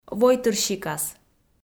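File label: "войтырсикас", Komi, female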